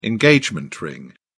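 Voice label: "engagement ring", British English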